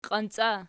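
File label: "qiancia" nominative, Archi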